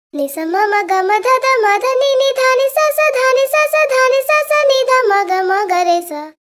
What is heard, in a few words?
pitch shift voice, ableton live 5